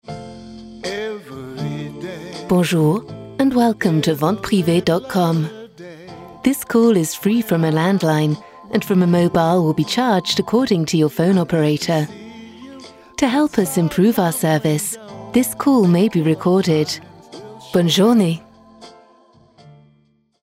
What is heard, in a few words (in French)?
UK accueil